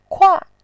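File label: "kua4", Mandarin Chinese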